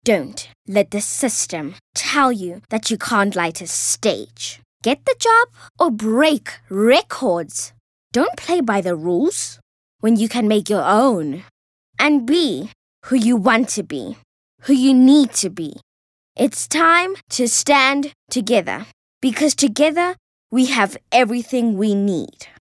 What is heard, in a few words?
English character motivational speaker